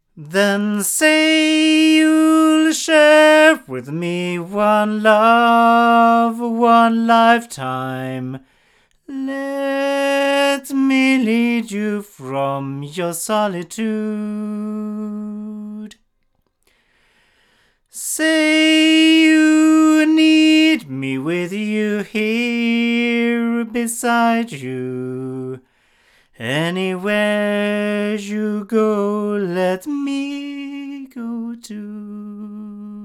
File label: Sontronics Orpheus cardioid male, microphones recordings